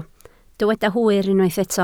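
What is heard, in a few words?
"hwyr" Welsh, spoken word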